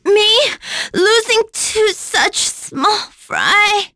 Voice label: Vox Dead, en; Nicky; kingsraid; voices heroes en